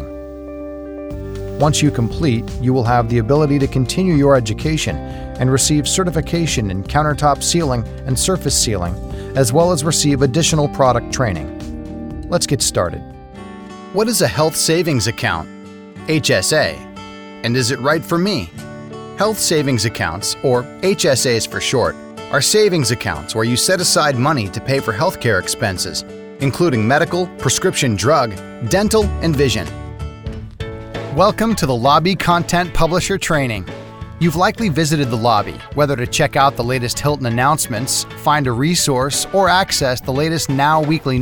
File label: E Learning